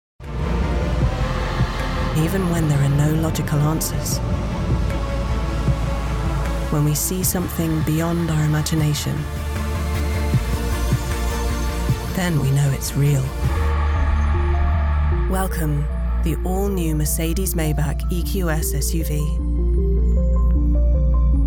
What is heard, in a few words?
english british automotive